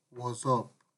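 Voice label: "wosup", Nigerian Pidgin, male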